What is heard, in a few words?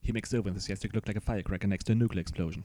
over enthusiastic